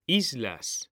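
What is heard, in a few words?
"islas", Spanish, male; mekanta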